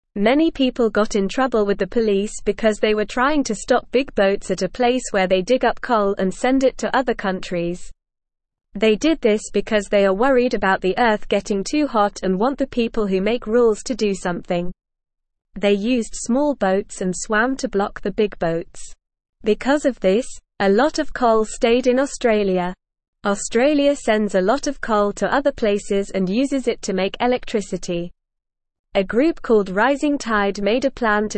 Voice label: English Beginner NORMAL Reading; People Block Big Boats to Stop Coal; English Newsroom

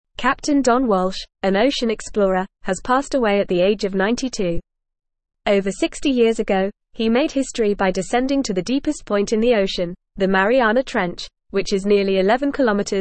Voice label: English Newsroom Advanced FAST Reading; Captain Don Walsh Ocean Explorer and Deep Sea Pioneer

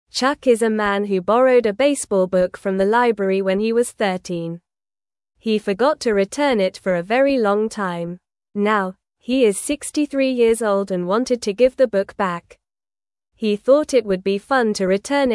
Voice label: English Newsroom Beginner NORMAL Reading; Chuck Returns Library Book After 50 Years

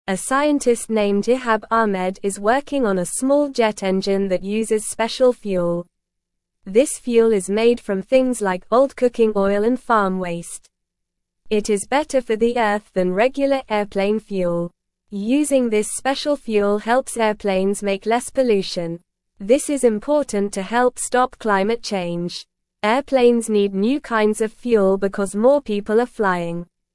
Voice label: English Beginner NORMAL Reading; Making Planes Greener with Special Earth Friendly Fuel

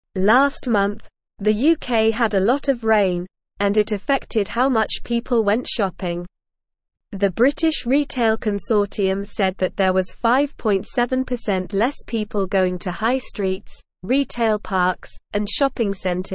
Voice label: English Upper Intermediate SLOW Reading; English Newsroom

